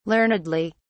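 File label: "learnedly", English, British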